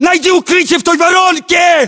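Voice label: order cover crater, battlechatter, Russian; localized english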